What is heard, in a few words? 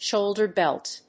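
"shoulder belt", English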